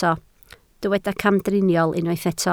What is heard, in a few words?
"camdriniol", Welsh